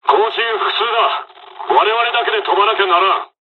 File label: dialog, dialogs japanese; us midway; War Thunder